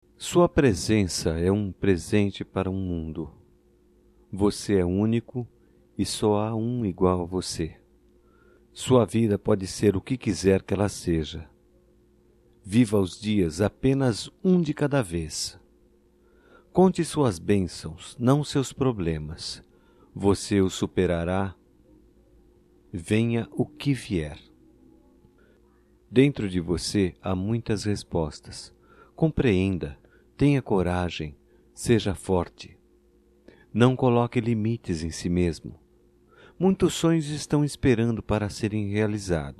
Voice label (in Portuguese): DIA DO AMIGO (OTIMISMO) M; Datas Mistas; masc; Telemensagens 2017